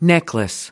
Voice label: "necklace", us

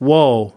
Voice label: "woe", us

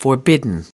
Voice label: "forbidden", "forbid", us

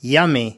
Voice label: "yummy", us

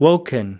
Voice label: "woken", us

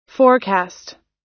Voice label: "forecast", us

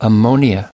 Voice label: "ammonia", us

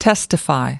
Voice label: "testify", us

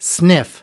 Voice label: "sniff", us

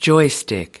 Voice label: "joystick", us

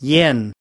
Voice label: "yen", us

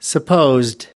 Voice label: "supposed", us

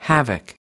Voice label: "havoc", us

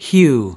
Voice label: "hew", us

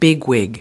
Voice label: "bigwig", us

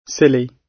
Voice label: "silly", gb

